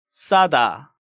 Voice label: "ssada", Korean

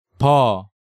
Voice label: "beo", Korean

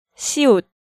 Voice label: "Siot", Korean

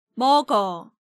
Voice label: "Meogeo", Korean

